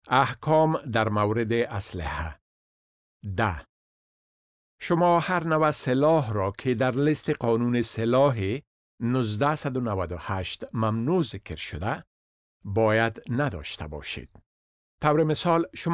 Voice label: dari; orders about weapons